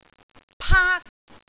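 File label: "paak1", Cantonese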